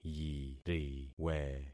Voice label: "yiriwe", concat syllables; Affixing experiment

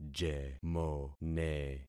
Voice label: "jemone", concat syllables; Affixing experiment

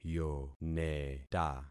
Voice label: "yoneta", concat syllables; Affixing experiment

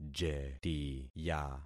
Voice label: "jetiya", concat syllables; Affixing experiment

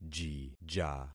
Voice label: "jija", concat syllables; Affixing experiment

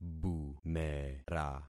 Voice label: "bumera", concat syllables; Affixing experiment

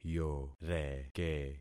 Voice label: "yoreke", concat syllables; Affixing experiment

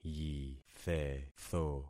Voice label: "yithetho", concat syllables; Affixing experiment

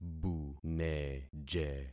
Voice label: "buneje", concat syllables; Affixing experiment

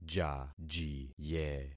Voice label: "jajiye", concat syllables; Affixing experiment

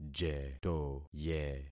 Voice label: "jetoye", concat syllables; Affixing experiment

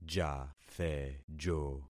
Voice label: "jathejo", concat syllables; Affixing experiment

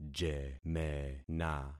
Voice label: "jemena", concat syllables; Affixing experiment

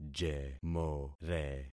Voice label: "jemore", concat syllables; Affixing experiment

